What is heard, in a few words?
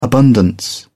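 "abundance", English, British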